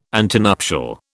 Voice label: "antenuptial", British